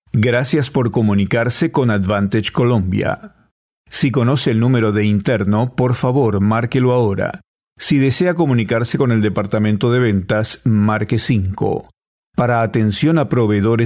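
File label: Spanish, male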